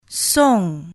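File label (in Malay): "song", Malay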